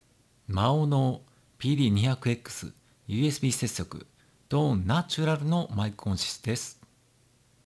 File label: maono pd200xs natural